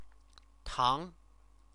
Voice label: "tang", Chinese